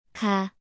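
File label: "가", Korean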